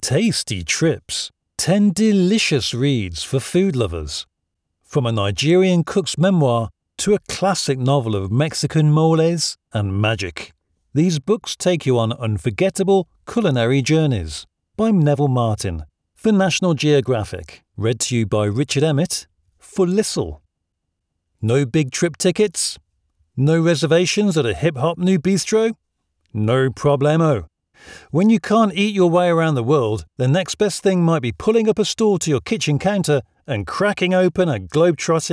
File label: Audiobook